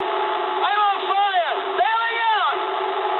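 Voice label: English on fire mood low; War Thunder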